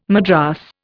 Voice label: "madras", Indian